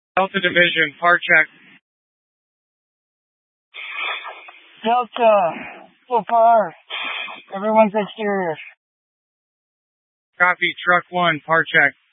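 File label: Incident Tac 1, Scanner audio recording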